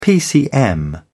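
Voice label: "pcm", British English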